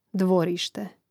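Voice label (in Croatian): "dvorište", hrvatski; mreznik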